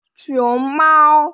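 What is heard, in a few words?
"xiong mao", Mandarin Chinese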